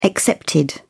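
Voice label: "excepted", British English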